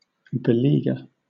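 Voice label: "beleaguer", British English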